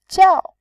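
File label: "jiao", Mandarin, fourth tone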